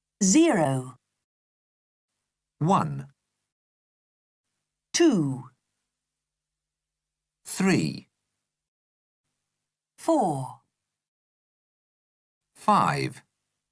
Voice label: Learn English numbers 0 20